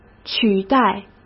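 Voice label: "取代", Mandarin Chinese; hsk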